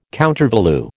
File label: "countervalue", American